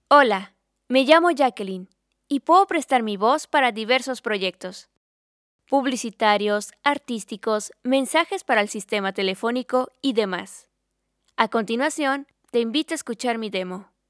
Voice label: Intro, youthful, calm, spanish Female Voice, voz calma, suave y juvenil, mexican